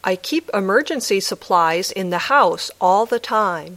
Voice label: Dictation